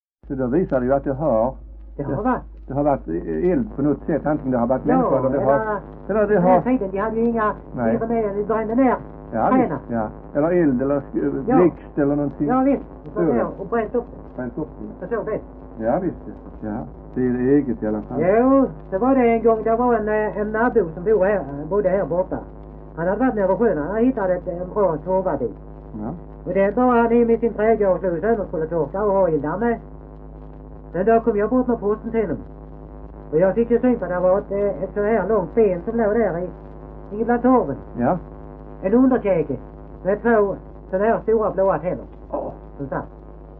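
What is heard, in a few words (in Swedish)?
Degeberga Skåne, svenska sagor dialekter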